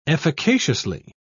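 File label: "efficaciously", British English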